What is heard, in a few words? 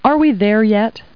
people, kid